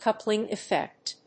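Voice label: "coupling effect", English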